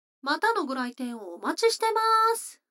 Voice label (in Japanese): 女の子台詞